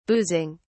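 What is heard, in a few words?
"boozing", British English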